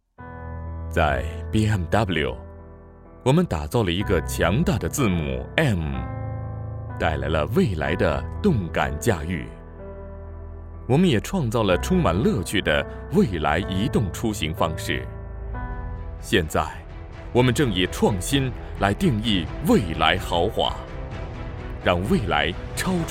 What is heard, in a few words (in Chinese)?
汽车广告; BMW7系